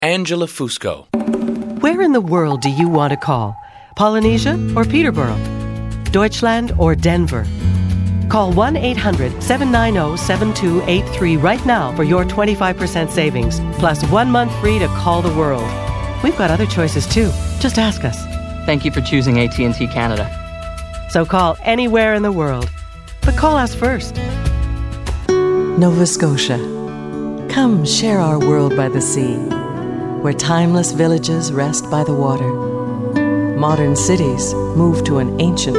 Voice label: commercial female